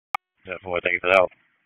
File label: Wake Forest Tac 3, P25 recordings